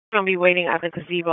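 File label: Police Southeast District Dispatch, p25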